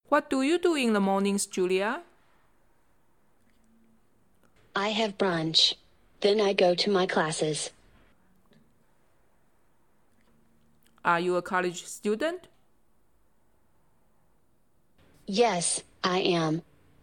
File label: morning routine dialog